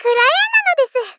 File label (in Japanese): Moe Critical Kurae nanodesu; Rei Yumesaki